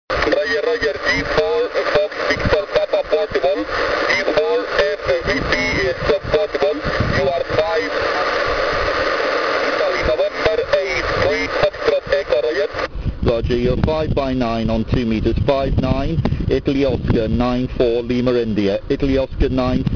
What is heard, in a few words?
2m tropo